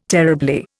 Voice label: "terribly", Indian